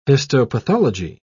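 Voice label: "histopathology", British English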